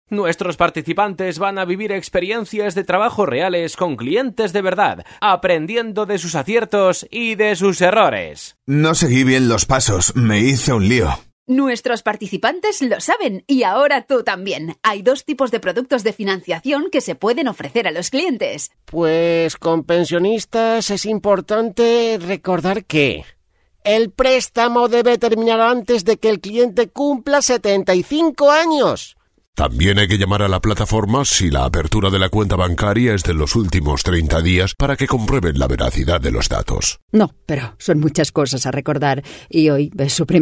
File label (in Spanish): curso elearning locutores